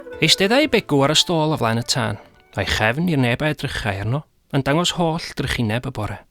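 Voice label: "Gofid", Welsh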